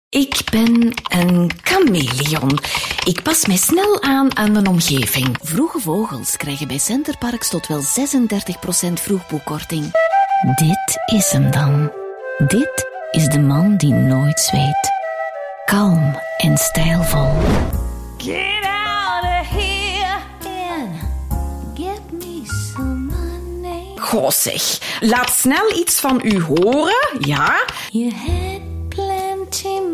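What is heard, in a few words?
Flemish Female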